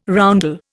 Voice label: "roundel", Indian